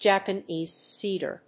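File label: "Japanese cedar", English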